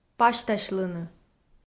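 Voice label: "пасьтасьлыны", Komi, female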